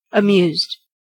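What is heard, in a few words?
"amused", US English; pronunciations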